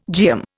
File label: "geum", Indian